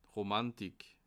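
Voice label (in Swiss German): "romantik", Bas Rhin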